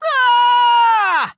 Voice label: hit cookie, en; Cookie Run

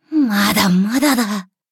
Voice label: levelup ja

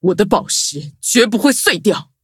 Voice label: levelup zh-hant; cookierunwiki

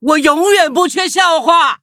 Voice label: levelup zh-hant; Cookie Run

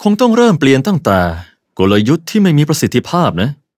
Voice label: defeat, th; cookie run

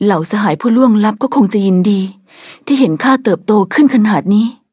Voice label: level up, th; cookie run

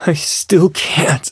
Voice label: defeat, en; cookie run

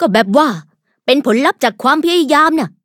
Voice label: level up, th; cookie run

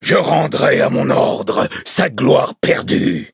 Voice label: levelup, fr; cookie run

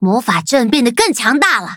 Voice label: levelup zh-hant

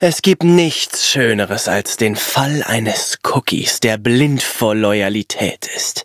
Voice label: loading, de; Cookie Run

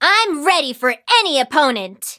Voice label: level up, en

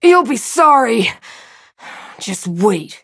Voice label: defeat, en; Cookie Run

